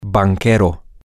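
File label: banker, Spanish, male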